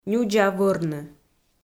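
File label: "нюдза вӧрны", Komi, female; audio dict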